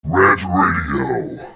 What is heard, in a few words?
Promo Deep Creepy; RantRadio; Station Promos-In House